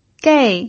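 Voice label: "gei", Mandarin Chinese, fourth tone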